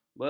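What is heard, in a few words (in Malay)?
"begol", Malay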